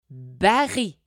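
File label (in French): "baril", French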